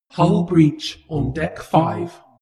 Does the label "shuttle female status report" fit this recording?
Yes